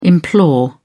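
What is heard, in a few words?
"implore", British English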